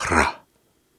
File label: Soft Syllables and Imaginary Words, Viking Vocal